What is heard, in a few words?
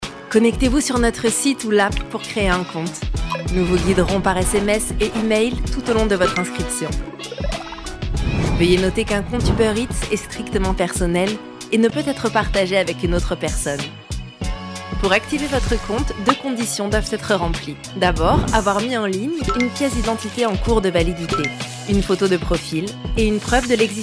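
French female Comercial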